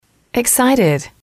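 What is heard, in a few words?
"excited", English, female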